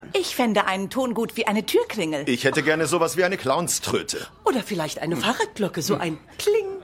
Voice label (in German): Frau, Mann; Lebe Larry